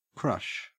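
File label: "crush", Australian English; pronunciations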